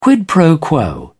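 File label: "quid pro quo", British English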